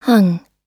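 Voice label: "hung", US English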